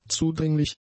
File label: "zudringlich", German; adjectives